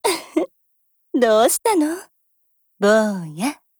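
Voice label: DIALOGUE, Japanese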